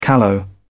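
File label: "callow", British English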